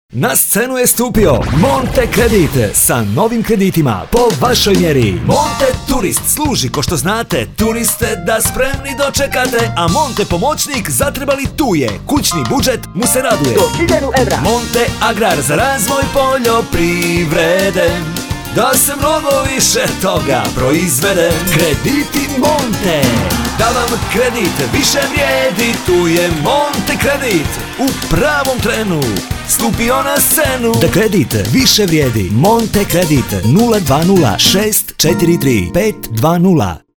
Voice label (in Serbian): reklama; MONTE CREDIT